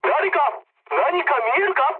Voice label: jp chat, speech jp

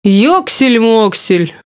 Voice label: Speech Quick Commands Caramba

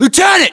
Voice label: US rank lieutenant, battlechatter, english; localized english

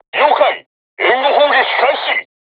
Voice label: voice message art shoot, crew tanks japanese

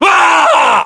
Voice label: death, voiceovers english

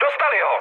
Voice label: aircraft destroyed, speech cze, mood low; War Thunder

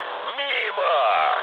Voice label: bomb failure, mood low, speech ru; War Thunder

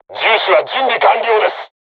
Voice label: voice message art charged, crew tanks japanese

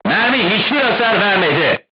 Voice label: voice message armor not pierce, turkish

